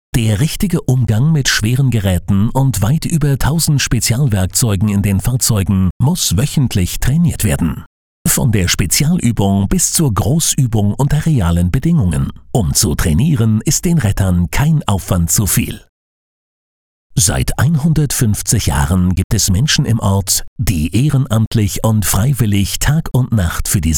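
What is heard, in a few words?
German, male, corporate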